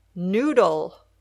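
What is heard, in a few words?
"noodle", English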